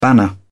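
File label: "banner", British English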